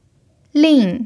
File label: "lin4", Mandarin pinyin